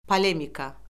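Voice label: "polemika", Azerbaijani; Orfoqrafiya Lüğəti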